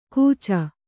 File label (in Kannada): "ಕೂಚ", Kannada